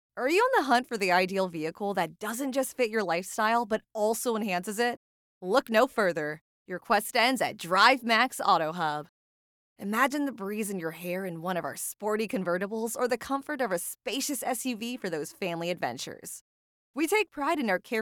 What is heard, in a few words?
female american voice over sales; english american